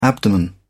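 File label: "abdomen", British English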